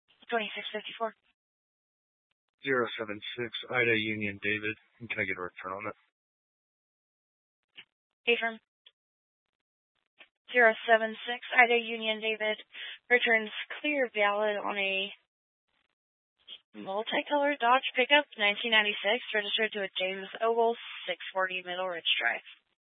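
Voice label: Sheriff Dispatch, Scanner audio recording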